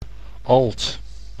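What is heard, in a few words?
"alt", Dutch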